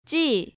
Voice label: "jji", Korean

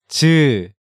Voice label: "Jeu", Korean

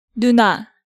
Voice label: "Nuna", Hangeul sounds; DigitalSoju

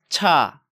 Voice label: "Cha", Korean

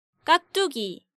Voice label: "Kkakdugi", Korean

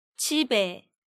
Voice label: "Jibe", Korean

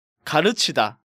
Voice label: "Gareuchida", Korean

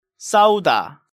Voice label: "Ssauda", Korean

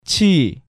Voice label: "Chi", Korean

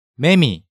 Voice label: "Maemi", Hangeul sounds; DigitalSoju

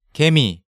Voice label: "Gaemi", Hangeul sounds; DigitalSoju

